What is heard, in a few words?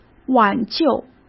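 "挽救", Mandarin Chinese; hsk; chinese-flashcards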